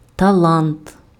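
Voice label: "талант", Ukrainian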